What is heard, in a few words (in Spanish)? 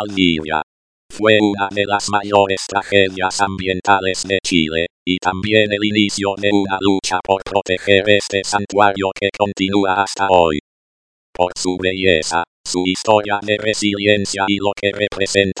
cisne cuello negro narracion